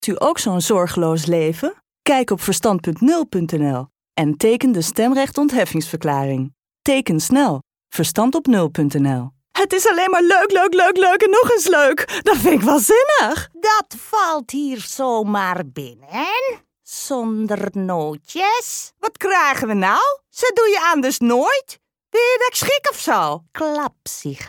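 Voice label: Dutch, female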